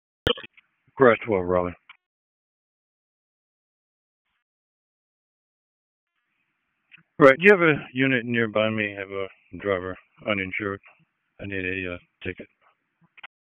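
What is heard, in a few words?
Police Northeast District Dispatch; Raleigh; wake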